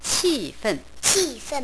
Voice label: "angry"